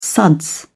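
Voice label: "suds", British English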